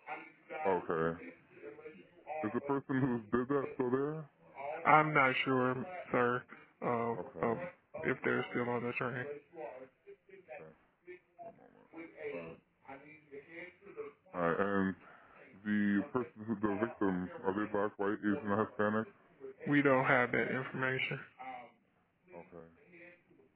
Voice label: Deadly Light Rail Stabbing 911 Call; 911 calls released in deadly light rail stabbing of Ukrainian refugee Iryna Zarutska